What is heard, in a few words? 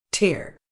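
"tear", British English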